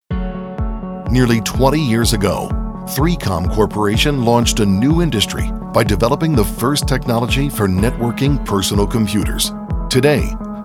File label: Corporate Narration - Powerful